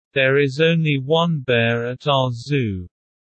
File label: low; Animal friends